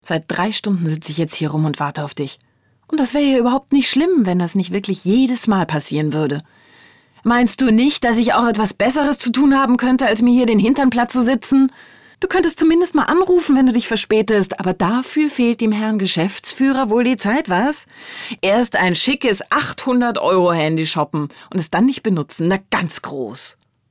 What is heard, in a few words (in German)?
synchron